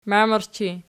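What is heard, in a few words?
"mamerchi" nominative, Archi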